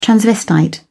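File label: "transvestite", British English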